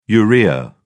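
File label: "urea", British English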